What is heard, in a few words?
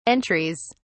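"entries", English, British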